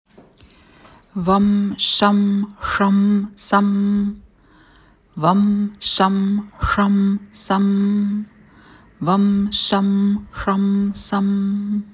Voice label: vaṁ śam ṣam sam, sanskriti

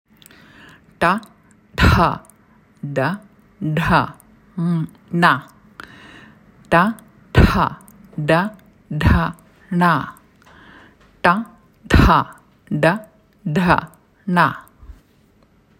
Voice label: ṭa ṭha ḍa ḍha ṇa, sanskriti; keskmise suulae (lingual, retroflex) konsonandid

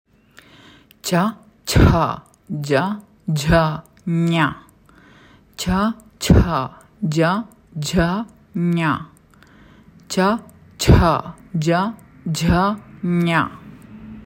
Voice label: ca cha ja jha ña, sanskriti; tagumise suulae (palatal) häälikud

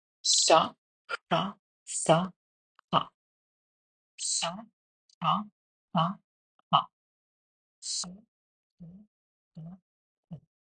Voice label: śa ṣa sa ha, sanskriti; kolm susisevat häälikut (sibilanti)

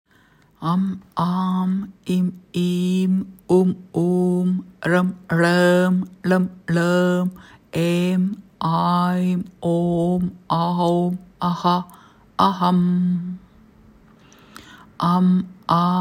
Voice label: am am im im um um ṛm r̥m lm l̥m em aim om aum aḥ aham, sanskriti; kuusteistkroonlehelise lootoseõiega

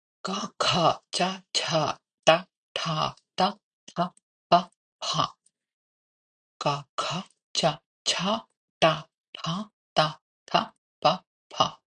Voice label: ka kha ca cha ṭa ṭha ta tha pa pha, sanskriti; esimene paar on kõva või hääletu

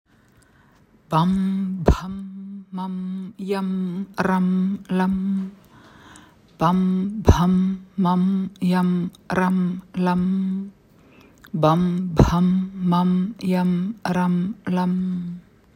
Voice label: baṁ bhaṁ maṁ yaṁ raṁ laṁ, sanskriti; Kuue kroonlehega lootoseõiel nāḍide silbid

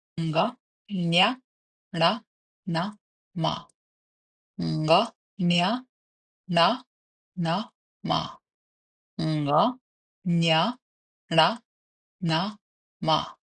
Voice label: ṅ ña ṇa na ma, sanskriti; Iga rühma viies täht on nasaalne

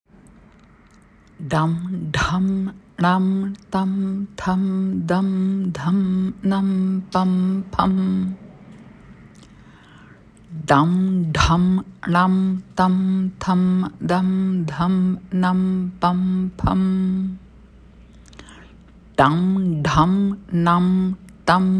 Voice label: ḍam ḍham ṇam tam tham dam dham nam pam pham, sanskriti; kümne kroonlehega lootost

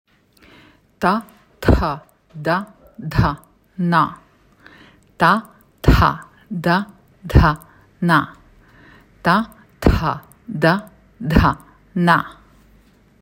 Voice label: ta tha da dha na, sanskriti; Esihammaste taga (dental) olevad häälikud

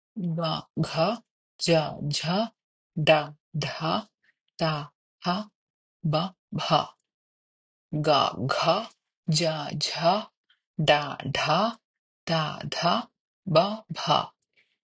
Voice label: ga gha ja jha ḍa ḍha da dha ba bha, sanskriti; Teine paar on pehme või hääleline

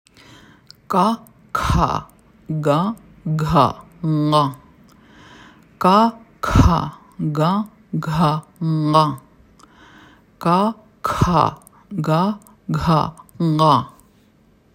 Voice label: ka kha ga gha ṅ, sanskriti; kurgu tagaosas (guttural) asuvatest häälikutest

